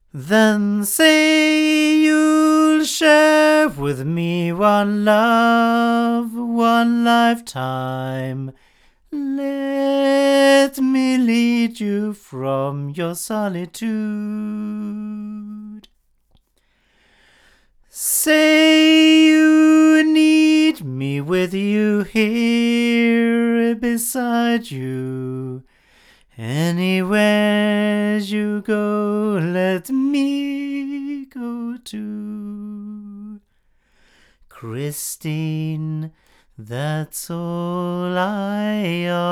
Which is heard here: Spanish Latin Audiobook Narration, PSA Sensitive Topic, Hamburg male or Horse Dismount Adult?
Hamburg male